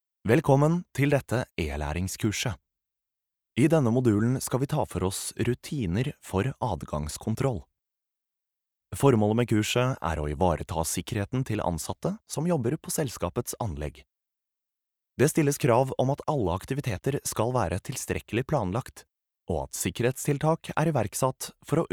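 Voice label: Norwegian elearning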